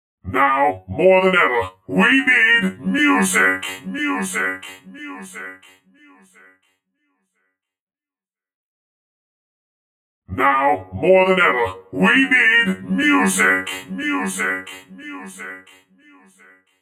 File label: Now More Than Ever We Need Music Multi Effects